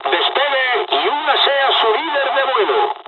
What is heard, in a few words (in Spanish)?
takeoff, dialogs spanish; War Thunder